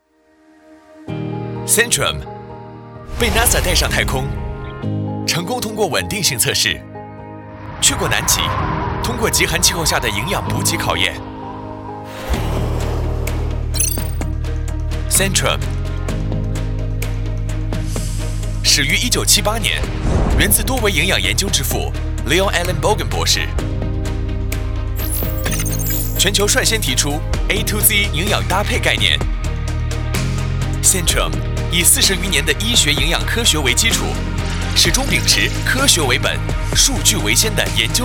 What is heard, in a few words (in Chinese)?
品牌宣传; 善存